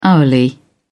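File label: "hourly", British English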